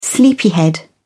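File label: "sleepyhead", British English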